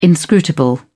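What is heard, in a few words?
"inscrutable", British English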